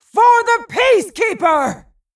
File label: Female Vrykul Attack